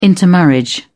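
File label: "intermarriage", British English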